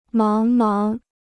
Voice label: "茫茫", Chinese

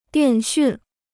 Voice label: "电讯", Chinese; 电讯 (diàn xùn): telecommunications

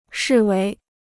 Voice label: "视为", Chinese

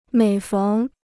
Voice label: "每逢", Chinese

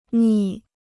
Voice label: "逆", Chinese

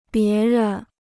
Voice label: "别人", Chinese

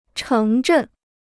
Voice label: "城镇", Chinese

